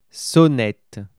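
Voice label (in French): "sonnette", French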